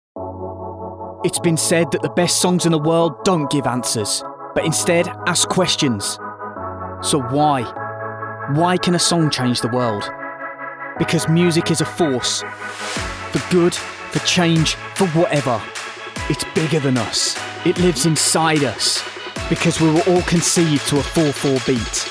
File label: Ad Spotify